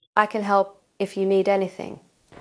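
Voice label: Voice Acting Spanish Female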